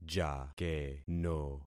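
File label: "jakeno", concatenated syllables; Affixing experiment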